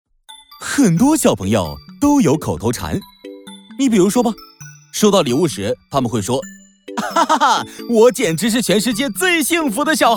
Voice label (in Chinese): 男 讲故事 活泼可爱; 男C6-年轻全能 素人自然